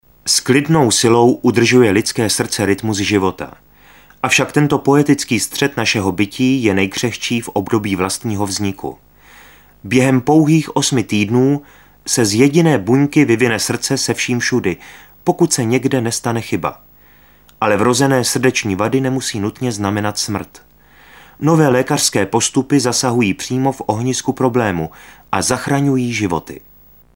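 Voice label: male Czech documentary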